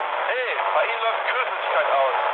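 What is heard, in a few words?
German damaged water mood low, speech; War Thunder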